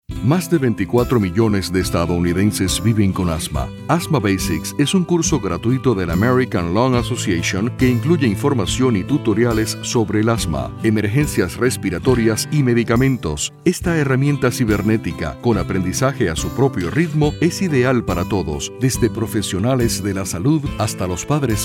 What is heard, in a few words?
Spanish